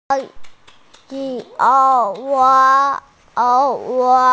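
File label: "er3duo5", Mandarin Chinese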